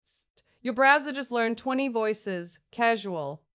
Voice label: Light and playful, best when small interface moments should feel more alive.; lightplayfulquick